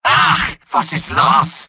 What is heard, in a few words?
jeers; medic; video games; source engine; tf; mvm